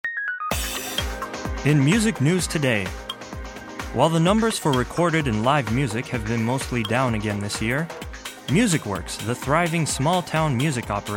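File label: narration, English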